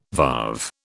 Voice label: "varve", British